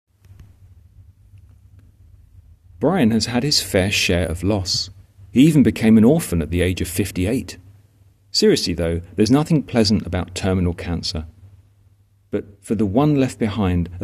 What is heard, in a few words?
voiceover